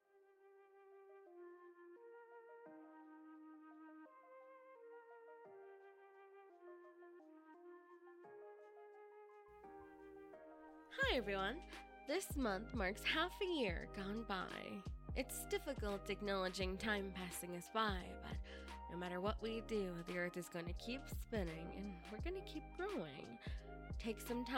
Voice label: Positive Message, asmr